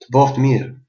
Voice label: bon appetit, albanian gheg